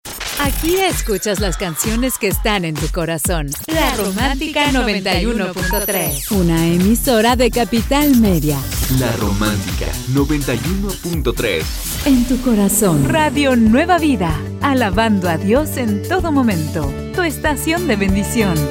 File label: Promo Spanish